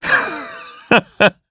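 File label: Vox Happy, Japanese; Shakmeh; kingsraid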